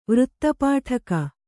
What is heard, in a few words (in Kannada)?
"ವೃತ್ತಪಾಠಕ", kannada